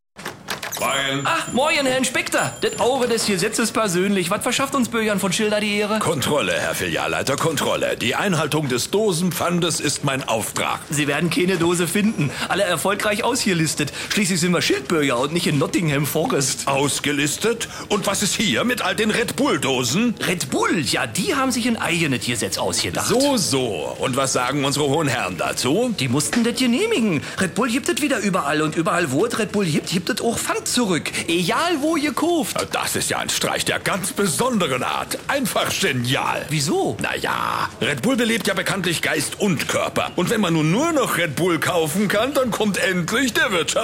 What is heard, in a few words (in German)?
Werbung; Red Bull Dosenpfd.